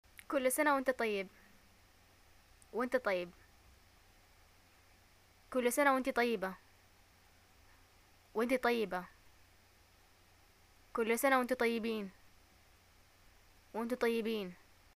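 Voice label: greeting happy birthday, Yemeni Arabic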